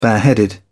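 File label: "bareheaded", British English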